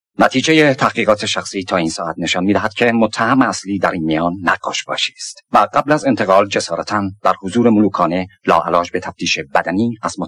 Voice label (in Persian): نمونه صدای آقای